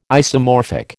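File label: "isomorphic", American English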